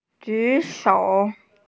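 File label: "ju3shou3", Mandarin Chinese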